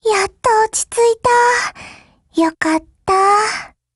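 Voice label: win, Japanese; HK23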